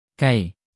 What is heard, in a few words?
"kay", tagalog markers